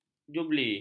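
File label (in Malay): "jubli", Malay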